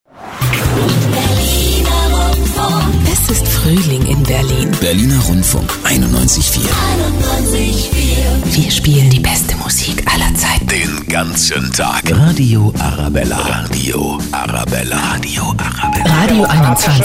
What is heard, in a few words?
Medley STATION VOICE